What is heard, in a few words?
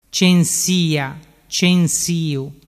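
"censiacensiu", Vernazzese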